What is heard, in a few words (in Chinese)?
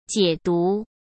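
"解读", Mandarin; chinese-flashcards; hsk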